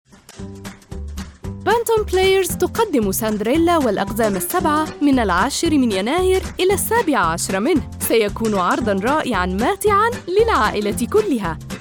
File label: female Arabic smooth, recited, commercial; 20-40